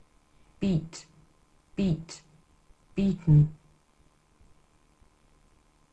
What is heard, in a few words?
"beat", verbs